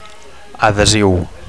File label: "adhesiu", Catalan; adhesive; sticky